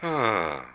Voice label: guy neutral; Classic Cribbage 1.0

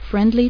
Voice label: friendly; JSF demo

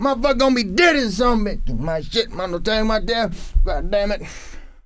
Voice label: babble; KINGPIN; male

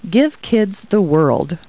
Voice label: people, kid

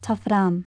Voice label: unhide, commands, swedish

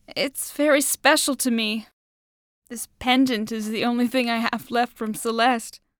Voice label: pendant Break, english; Keepsake; crescent Coast Waterway

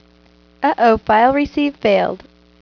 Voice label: File Receive Failed (Female)

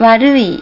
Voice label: warui, Japanese; japaneselanguageguide

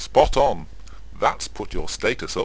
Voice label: chat

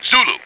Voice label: zulu, Male Voice; Transcription: Zulu!; Ut; utdemo3dfx

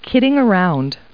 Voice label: people, kid

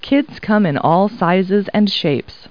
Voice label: people, kid; Talking Clip Words

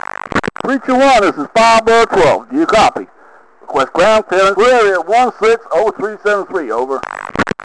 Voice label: chat

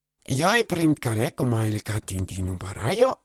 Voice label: mimic Talk

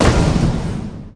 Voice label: men; omikron

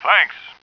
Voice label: male thanks; Starsiege; Tribes

